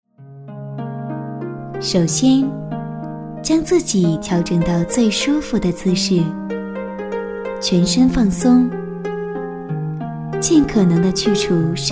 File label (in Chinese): 多媒体 培训课件 动作讲解, 女国